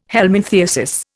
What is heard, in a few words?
"helminthiasis", Indian